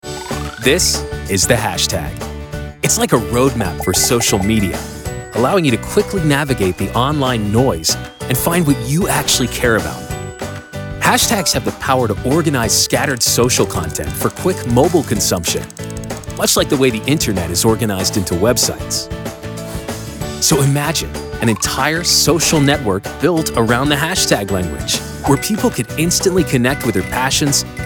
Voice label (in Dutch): Man 30 40 jaar Medium; BUITENLANDSE VOICEOVER